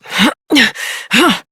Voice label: Horse Mount Adult, Dialog; Duviri

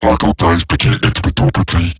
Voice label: Attack Robot Large

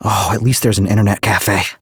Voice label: Citizen Male, en; Citizens

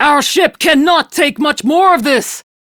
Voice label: Crew Ship Low, Steel Meridian Female; Dialog; en; Warframe; RailjackCrew

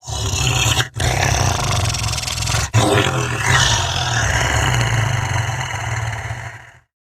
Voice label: Idle Grineer Wolf, en; Battle Barks

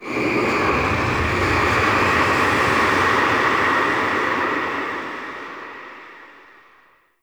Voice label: Sentient Vessel Delay Pain; Warframe; Tau

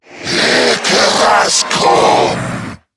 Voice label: Attack, en; Zariman Wraith

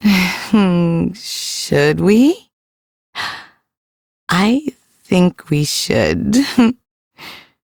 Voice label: Ambient Female, en; Duviri

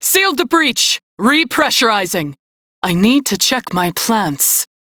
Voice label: Crew Fix Breach, Female; en; Warframe; Railjack Crew; New Loka

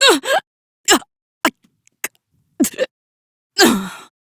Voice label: Death, female; Warframe; Railjack; Cephalon Suda

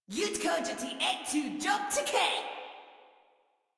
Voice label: Attack Female; Warframe; Modular Corpus Female

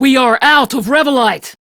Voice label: Crew Need Revolite, Female; Dialog; en; Warframe; RailjackCrew; Steel Meridian Female

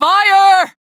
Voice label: Crew See Fire, Female; Dialog; en; Warframe; Railjack Crew; New Loka

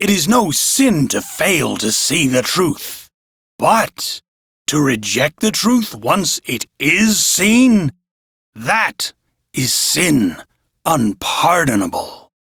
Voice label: Crew Idle, Male, en; Warframe; Railjack Crew; Arbiters Hexis

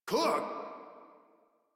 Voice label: Surprised Modular Corpus Male; Dialog; Barks; Battle; Warframe

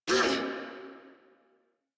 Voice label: Pain Crewmen, en; Warframe; Corpus Crewmen; Crewman A; Battle Barks

